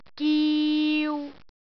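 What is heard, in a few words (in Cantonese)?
"giu3", Cantonese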